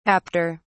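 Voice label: "apter", British English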